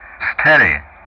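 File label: "STEADY", English; Ka-50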